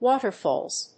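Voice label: "Water Falls", English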